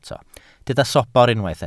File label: "sobr", Welsh